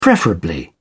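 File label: "preferably", English, British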